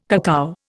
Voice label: "cacao", Indian